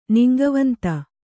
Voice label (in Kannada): "ನಿಂಗವಂತ", Kannada